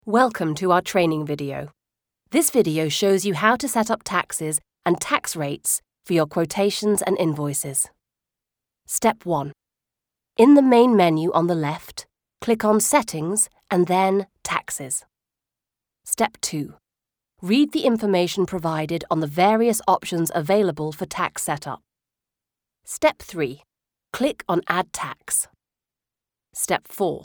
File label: E-Learning